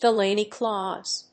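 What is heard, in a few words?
"Delaney clause", English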